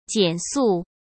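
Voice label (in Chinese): "减速", Mandarin Chinese; hsk; chinese-flashcards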